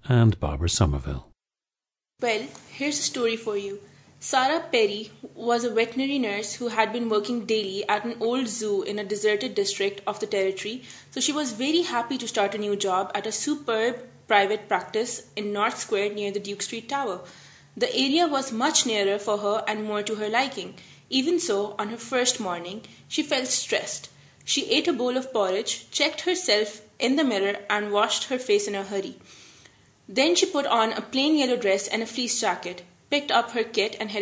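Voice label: pakistan, dialects of English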